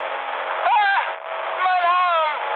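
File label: German damaged pilot mood high, speech; War Thunder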